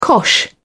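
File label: "cosh", British English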